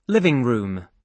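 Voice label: "living room", British English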